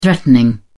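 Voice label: "threatening", British English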